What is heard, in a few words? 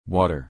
"water" us